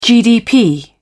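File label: "gdp", British English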